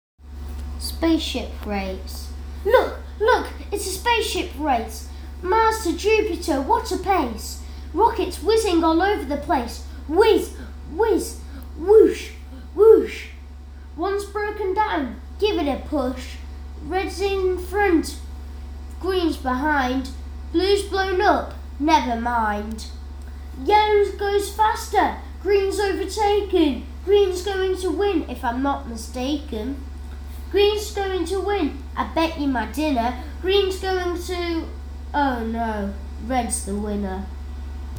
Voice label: poetry reading, Years 3 and 4 were set a poetry reading challenge; Woodmansey CE Primary School